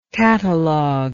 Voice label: "cataloguist", English

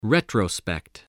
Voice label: "retrospect", English

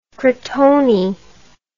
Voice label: "crotone", english

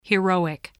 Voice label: "heroic", English, spelling

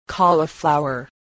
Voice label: "cauliflower", spelling

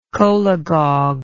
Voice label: "cholagogue", English

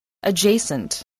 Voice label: "adjacent", English voice

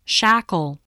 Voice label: "shackle", spelling

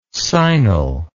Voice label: "sinal", words

